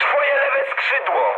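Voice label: Polish left wing mood high; War Thunder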